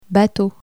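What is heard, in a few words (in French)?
"bateau", French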